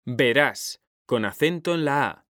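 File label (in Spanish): "verás", Spanish; mekanta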